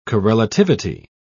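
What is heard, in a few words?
"correlativity", British English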